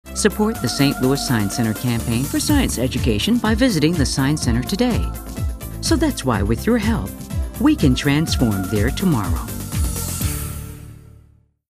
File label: upbeat